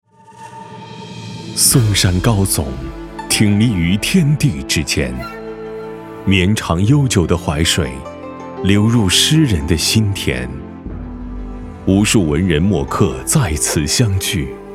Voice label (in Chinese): 男 宣传 历史叙述; 嵩阳书院